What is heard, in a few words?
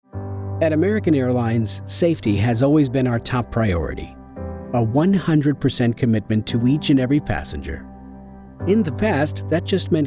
Explainer video Narration; American Airlines